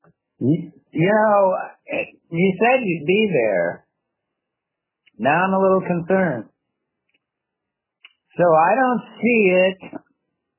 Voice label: voicemail